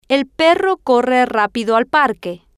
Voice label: el perro corre rapido al parque, spanish